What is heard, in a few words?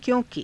"kyo~ki", Hindi